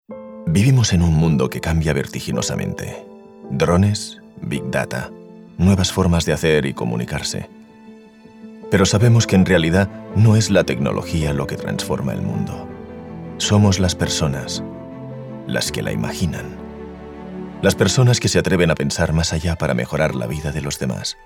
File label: male spanish tec comercial; ideasonora